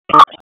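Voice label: EMS DISP, radio; wake; p25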